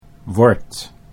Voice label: "word", Dutch

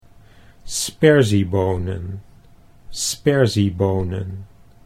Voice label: sperziebonen, Dutch

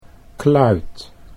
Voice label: "kluit", Dutch

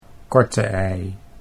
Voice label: "korte ei", Dutch

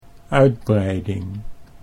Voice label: "uitbreiding", Dutch

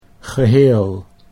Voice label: "geheel", Dutch

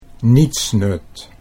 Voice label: "nietsnut", Dutch

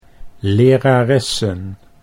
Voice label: "leraressen", Dutch

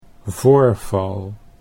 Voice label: "voorval", Dutch

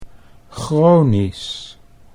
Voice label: "chronisch", Dutch

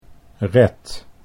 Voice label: "red", Dutch

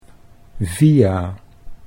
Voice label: "via", Dutch

